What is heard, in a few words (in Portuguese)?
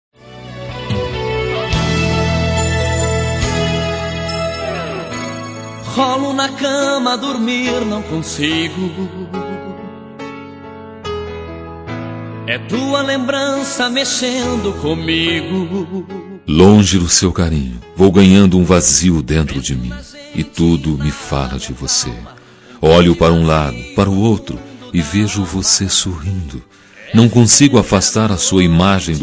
SAUDADES MASC; Telemensagens 2017